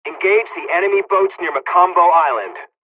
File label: attack, dialogs serbian; War Thunder